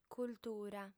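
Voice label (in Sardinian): "cultura", female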